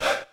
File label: "Hey Mannie", Vox